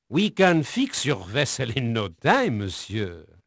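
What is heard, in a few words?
French male, voice; Pirates Of The Caribbean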